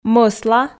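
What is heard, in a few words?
"мӧсла", Komi, female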